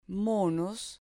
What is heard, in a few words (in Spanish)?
"monos", Spanish